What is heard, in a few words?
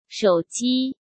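"手机", Mandarin Chinese; chinese-flashcards; hsk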